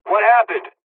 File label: dialog, hungarian; dialogs; midway